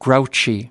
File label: "grouchy", us